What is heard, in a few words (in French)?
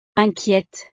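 "inquiete", French; estados animo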